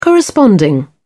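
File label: "corresponding", British English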